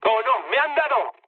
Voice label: aircraft damaged, mood med, speech; War Thunder